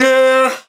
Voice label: Voxchop C4; Synthetic's Serum Collection; Aubit; Garyx Vol. 1